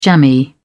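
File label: "jammy", British English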